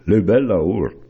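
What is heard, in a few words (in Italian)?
L’è ü bel laùr, bergamasco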